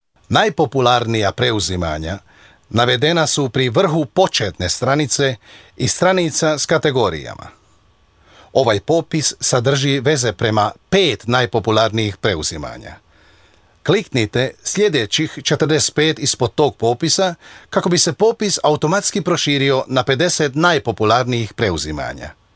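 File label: croatian male voice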